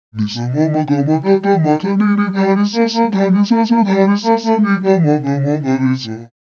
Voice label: voice, pitchshift -12; ikm sample tank ps ts